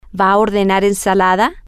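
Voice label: Spanish, female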